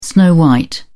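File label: "snow-white", British English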